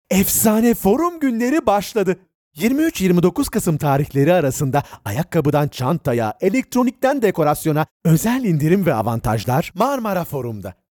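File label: Turkish male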